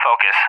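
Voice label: "Focus", English; CallSign; DCS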